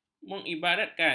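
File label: "mengibaratkan", Malay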